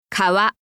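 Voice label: "kawa", Japanese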